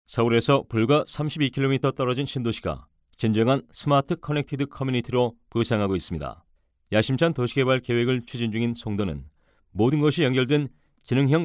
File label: m